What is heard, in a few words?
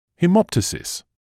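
"haemoptysis", British English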